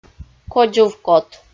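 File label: "кодзувкот", Komi, female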